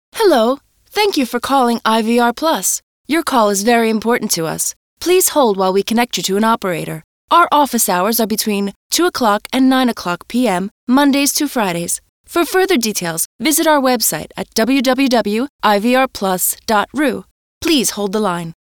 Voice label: voice ru